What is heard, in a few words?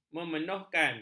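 "memenuhkan", Malay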